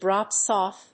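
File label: "drops off", English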